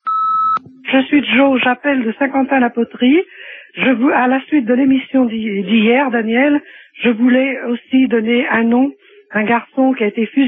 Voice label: Lettres fusilles repondeur

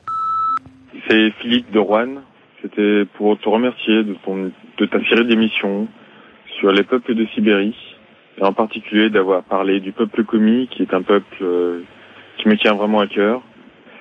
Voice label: repondeur; Peuples de Siberie

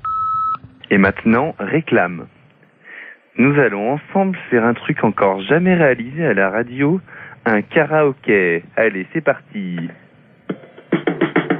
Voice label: Pubs France Inter, repondeur

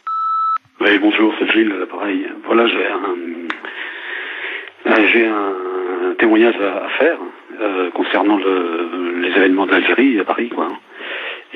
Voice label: repondeur; Ratonade a Paris

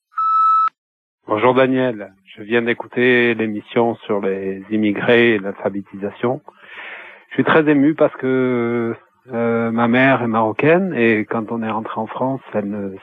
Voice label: Alphabetisation repondeur

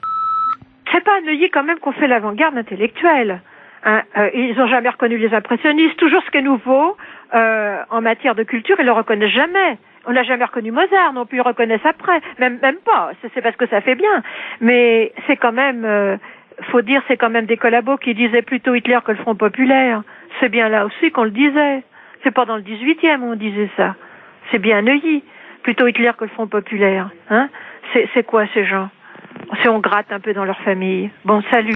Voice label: repondeur; Bourgeois collabos

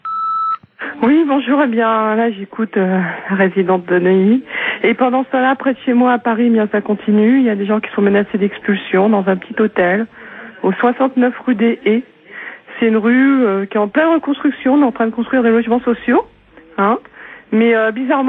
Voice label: repondeur; Expulsions locatives

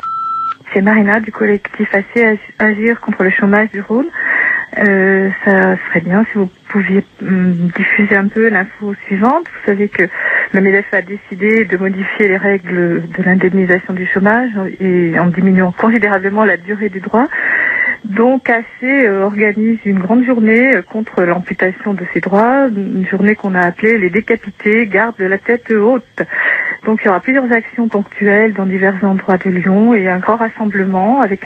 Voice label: Assurance chomage repondeur

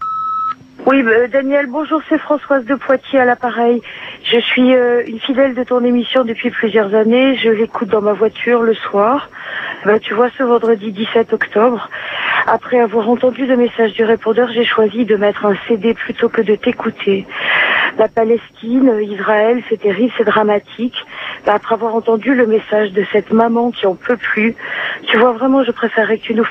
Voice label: France d en bas repondeur

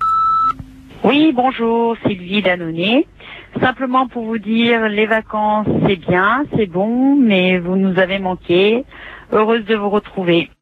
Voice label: repondeur; Manque de Lа-Bas

